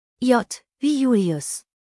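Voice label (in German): "julius", German; buchstabierung alt; J wie Julius; Buchstabieren Sie bitte!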